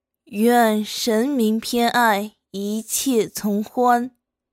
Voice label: 御姐音 短句 配音

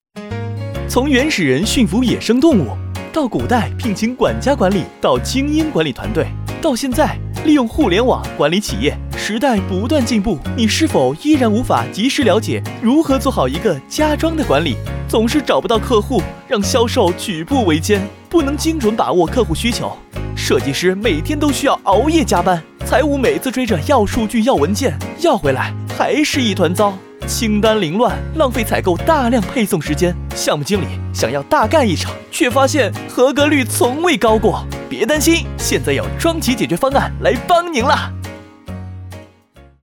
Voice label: 解说 年轻时尚

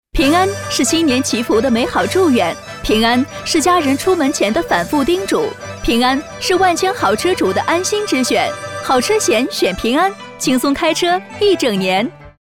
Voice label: 广告商务广告

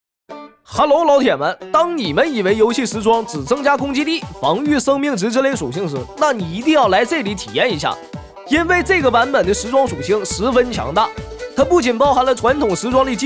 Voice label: 信息流

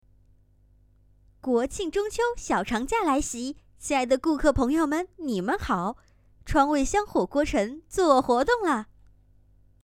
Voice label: 广告音色

